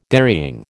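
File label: "dairying", American English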